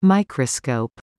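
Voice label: "microscope", English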